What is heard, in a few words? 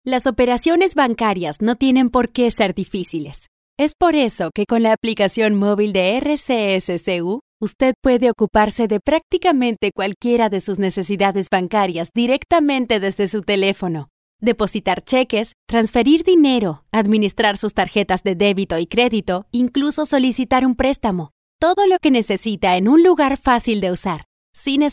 Professional